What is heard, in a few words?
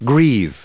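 "grieve", English, us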